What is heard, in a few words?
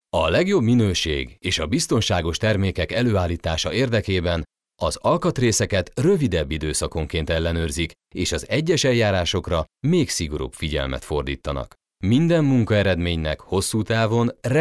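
Hungarian, male, narration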